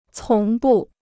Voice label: "从不", Chinese

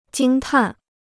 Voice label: "惊叹", Chinese

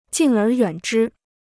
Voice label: "敬而远之", Chinese

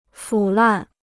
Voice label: "腐烂", Chinese

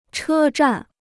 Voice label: "车站", Chinese

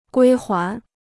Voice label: "归还", Chinese

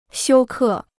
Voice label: "休克", Chinese

